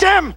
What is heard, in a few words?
"damn", expletives; god damn; stewie